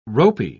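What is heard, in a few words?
"ropey", British English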